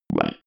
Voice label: robot voice one